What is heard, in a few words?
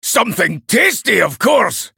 male dwarf greetings